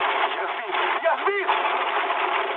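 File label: Russian aircraft destroyed mood low, speech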